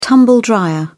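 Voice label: "tumble-dryer", British English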